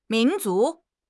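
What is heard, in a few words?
"min2 zu2", Mandarin Chinese; HSK; HSK4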